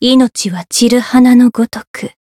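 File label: win, Japanese; niike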